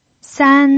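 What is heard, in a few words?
"san" Mandarin Chinese, first tone, pinyin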